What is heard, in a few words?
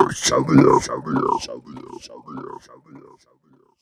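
effects talk, Funky Vocals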